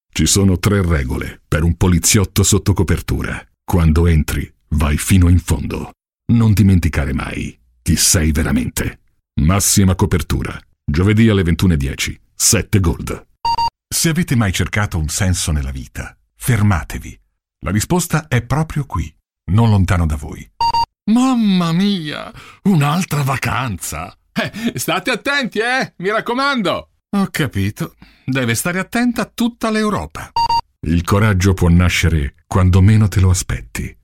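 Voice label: Italian, male, showreel; voice over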